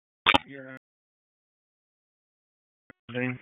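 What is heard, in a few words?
Sheriff's Office Dispatch 1, p25; wake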